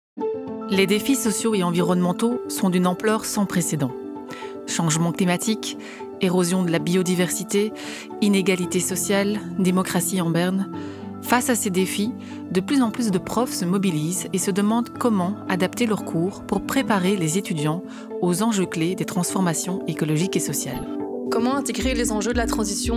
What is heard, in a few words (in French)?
Podcast